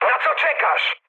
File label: attack position mood med, speech pol; War Thunder